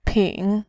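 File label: "ping", Mandarin, tone 3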